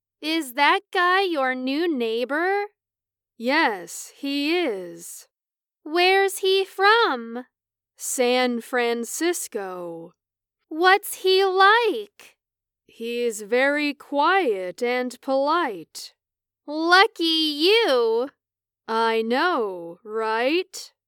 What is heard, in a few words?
Dialogue Semi Natural